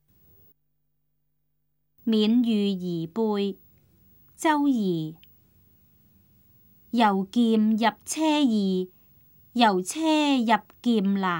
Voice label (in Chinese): cantonese; 勉諭兒輩; 粵語